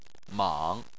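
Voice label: "mang", Chinese, third tone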